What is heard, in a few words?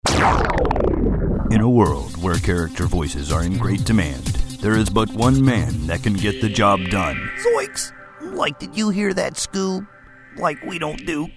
Charactor Demo